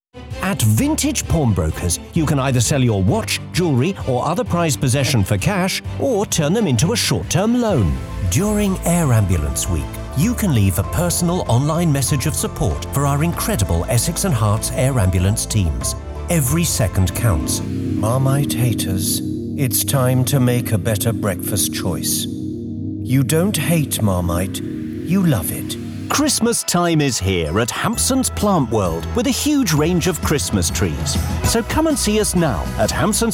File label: commercial